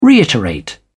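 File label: "reiterate", British English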